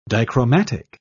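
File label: "dichromatic", British English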